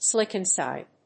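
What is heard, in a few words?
"slickenside", English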